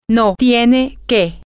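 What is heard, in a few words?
you have to no, Spanish, female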